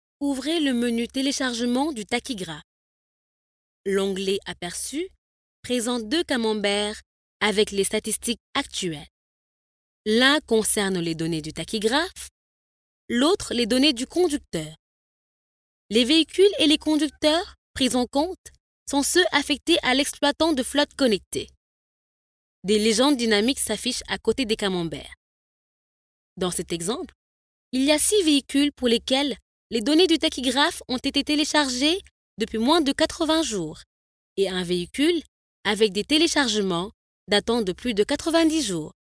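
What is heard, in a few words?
African French, female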